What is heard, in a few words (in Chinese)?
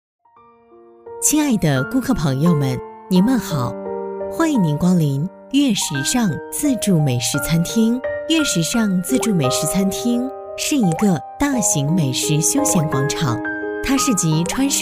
女 餐厅温馨提示 温暖柔和; 舒缓抒情